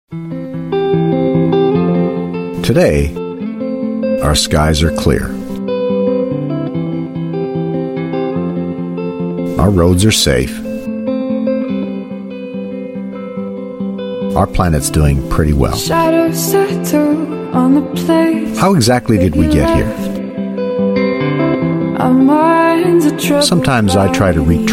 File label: US English; How Did We Get Here